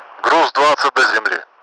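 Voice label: twenty to ground, Russian; DCS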